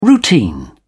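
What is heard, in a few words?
"routine", British English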